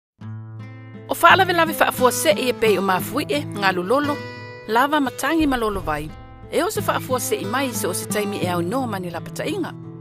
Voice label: Radio SAMOAN